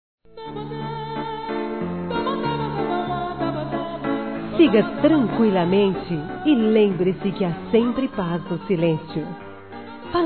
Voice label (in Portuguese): UM DIA DE ESPERANÇA F, Telemensagens, Gospel; fem; Telemensagens 2017